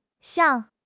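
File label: "xiang4", Chinese; HSK3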